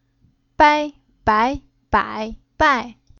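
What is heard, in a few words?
"Bai", Chinese syllable; Уроки фонетического блока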